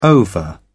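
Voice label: "ova", British English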